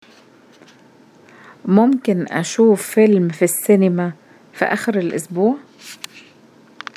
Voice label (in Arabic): هل ممكن أشاهد فيلم في السينما في نهاية الاسبوع Egyptian; question 3 which dialect أي لهجة؟